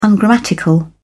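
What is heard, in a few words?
"ungrammatical", British English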